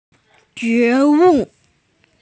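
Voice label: "awakening", female; jue2wu4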